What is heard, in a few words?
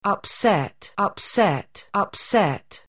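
"upset", English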